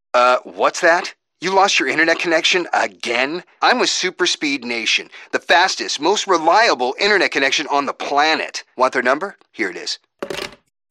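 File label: male, Canadian English, narration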